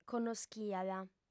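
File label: "connoschiat", female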